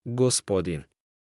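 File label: "gospodin", Bosnian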